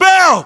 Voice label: name bell, localized english battlechatter us